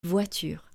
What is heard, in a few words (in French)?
"voiture" French